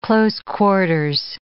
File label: "close quarters", English